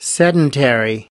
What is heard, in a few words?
"sedentary", us